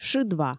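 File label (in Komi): "шыдва", Komi, female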